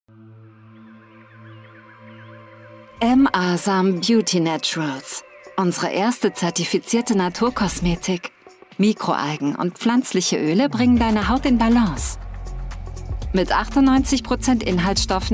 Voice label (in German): werbung online spot; asambeauty; jung natuerlich